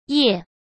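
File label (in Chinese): "业", Mandarin Chinese; hsk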